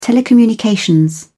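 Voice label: "telecommunications", British English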